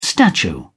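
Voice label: "statue", British English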